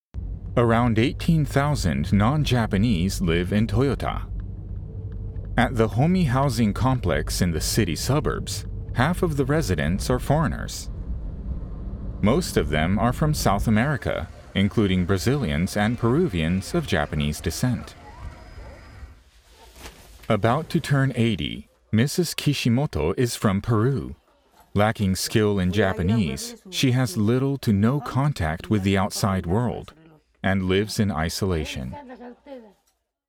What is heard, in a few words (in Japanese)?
外国人ドキュメンタリー 番組 TV ラジオ ウェブ等 落ち着いた 好奇心